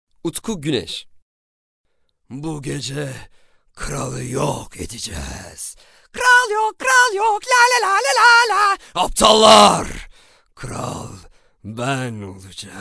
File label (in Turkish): animasyon